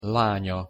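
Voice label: "lanya", Hungarian pronunciation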